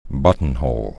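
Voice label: "buttonhole", English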